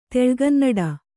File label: "ತೆಳುಗನ್ನಡ", Kannada